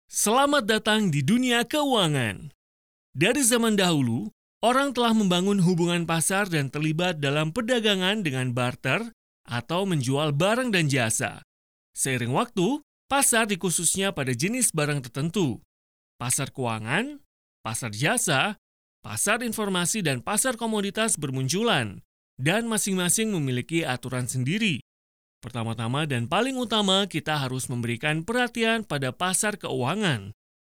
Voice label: indonesian audiobooks

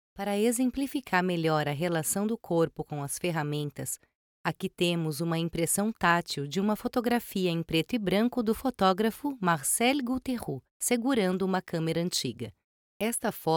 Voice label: portuguese brazilian narration

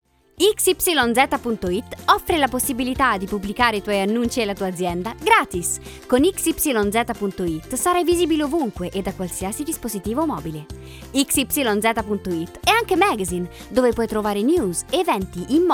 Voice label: italian corporate videos

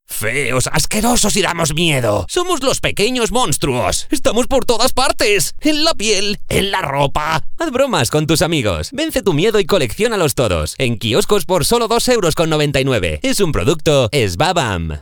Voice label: spanish animation

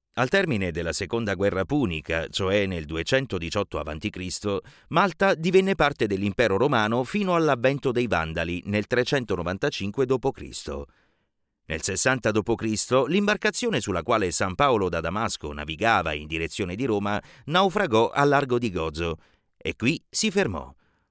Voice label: italian documentaries

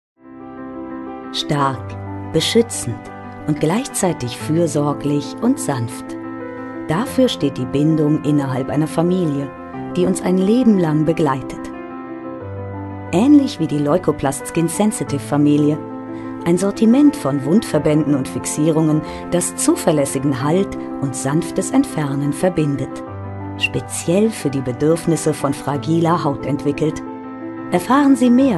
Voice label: german corporate videos

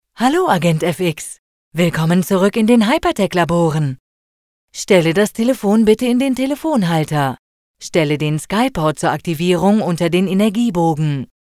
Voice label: german video games

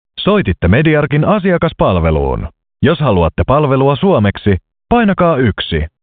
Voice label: finnish ivr

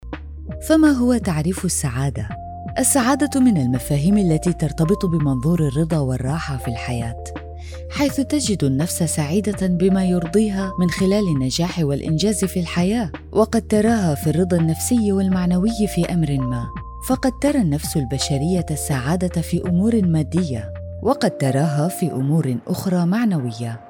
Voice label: arabic audiobooks

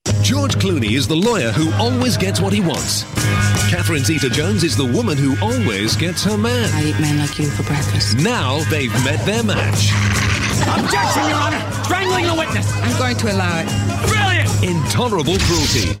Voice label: english british movie trailers

